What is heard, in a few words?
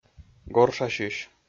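"горшасьысь", Komi-Zyrian, male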